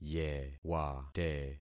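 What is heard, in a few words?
"yewate", concatenated syllables; Affixing experiment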